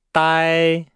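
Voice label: "dai", Chinese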